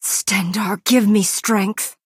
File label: Breton female implore